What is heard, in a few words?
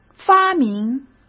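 "发明", Mandarin Chinese; hsk; chinese-flashcards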